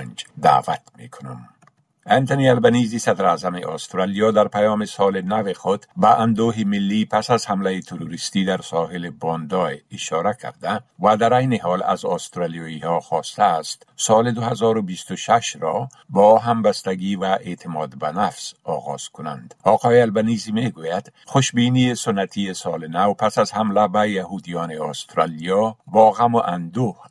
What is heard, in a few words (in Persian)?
خلاصه مهمترين خبرهای روز از بخش درى راديوى اس‌بى‌اس; SBS Dari